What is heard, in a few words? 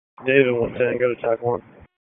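Police Southwest District Dispatch, p25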